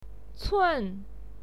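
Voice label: "cun", Mandarin Chinese, fourth tone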